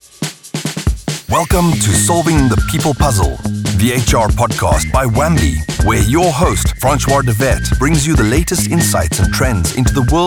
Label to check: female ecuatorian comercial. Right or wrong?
Wrong